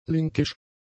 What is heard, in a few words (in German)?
"linkisch", German; adjectives